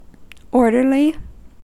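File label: "orderly", American English; pronunciations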